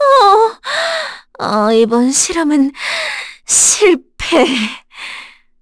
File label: Dead, kr; Mediana; kingsraid